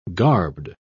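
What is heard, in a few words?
"garbed", British English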